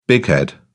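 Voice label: "bighead", British English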